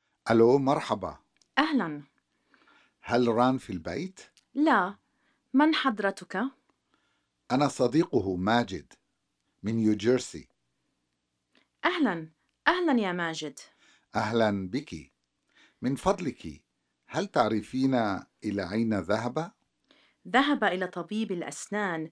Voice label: Conversation; SALAMAAT